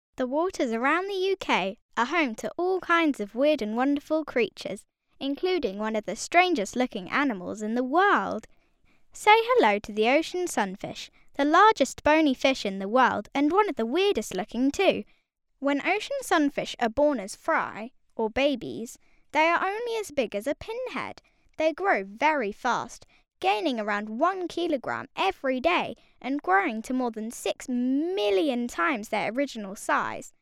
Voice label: girl british voice over narrative, english british